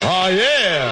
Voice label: "ah yeah", male; VOCALS and SPOKEN WORD